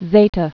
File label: zeta, greek alphabet